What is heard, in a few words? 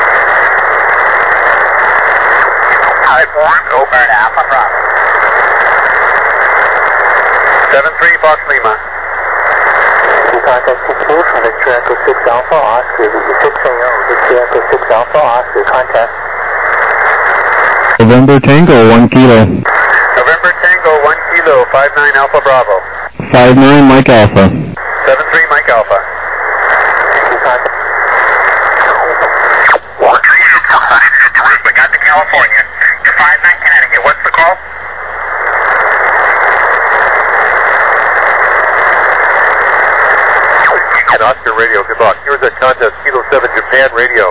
USB 28MHz; ARRL10M